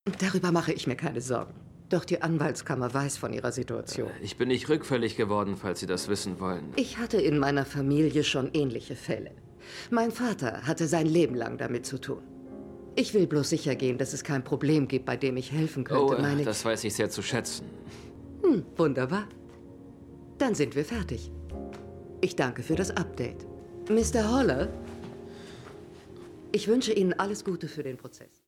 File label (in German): Synchron, frauen; Lincoln Lawyer